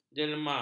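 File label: "jelma", Malay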